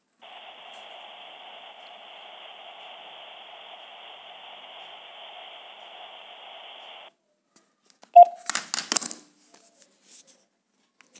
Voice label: Call recording